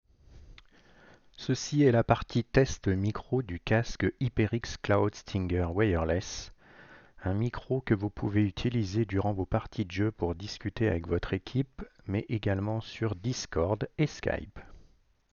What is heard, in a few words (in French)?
test audio casque, HyperX Cloud Stinger Wireless